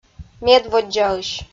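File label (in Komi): "медводдзаысь", Komi, female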